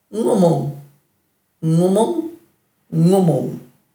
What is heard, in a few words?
"ngumung", Dhurga